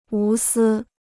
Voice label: "无私", Chinese

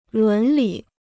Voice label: "伦理", Chinese

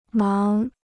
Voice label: "忙", Chinese

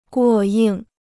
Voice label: "过硬", Chinese

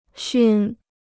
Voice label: "熏", Chinese

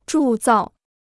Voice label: "铸造", Chinese

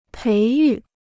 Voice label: "培育", Chinese

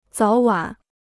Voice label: "早晚", Chinese

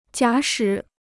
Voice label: "假使", Chinese

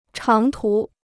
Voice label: "长途", Chinese

